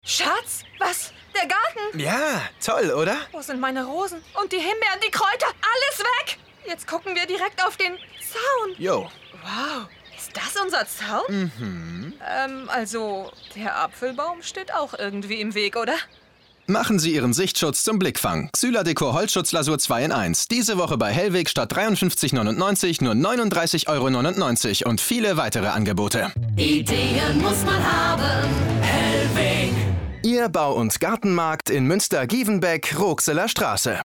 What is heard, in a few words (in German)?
werbung allesmussraus; hellweg